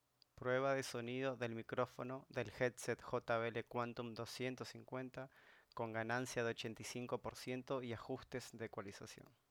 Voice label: jbl quantum 250 mic test